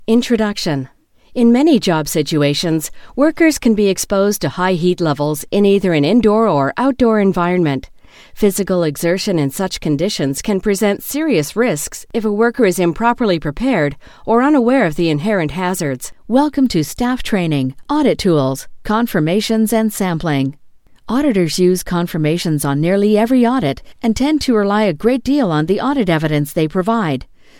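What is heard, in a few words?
English Canadian, female, e-learning; voice demos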